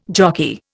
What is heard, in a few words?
"jockey", Indian